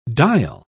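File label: "dial", English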